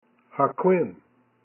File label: "HaKwinn", Kumeyaay; Acorn Hopper